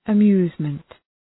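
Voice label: "amusement", English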